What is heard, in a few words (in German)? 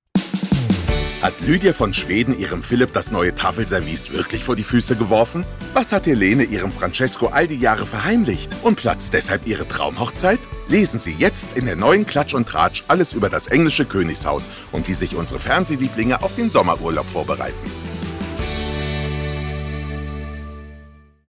Tratsch und Klatsch Werbung